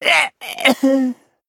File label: Male Venthyr Death